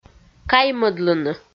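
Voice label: "каймӧдлыны", Komi, female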